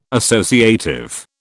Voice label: "associative", British